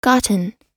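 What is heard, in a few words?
"gotten", American English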